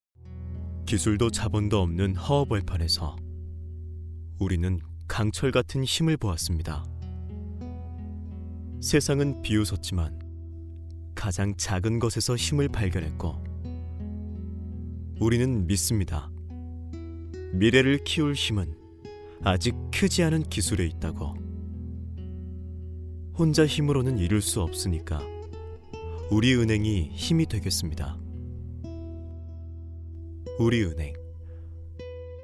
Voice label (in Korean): 광고 감성적인